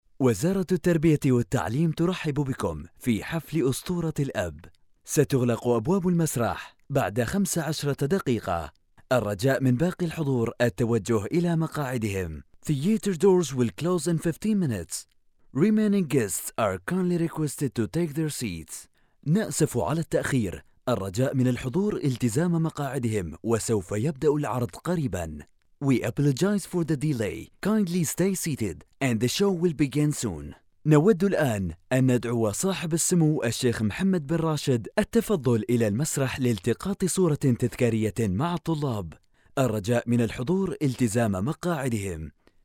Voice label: Multilingual IVR On Hold Voicemail Greetings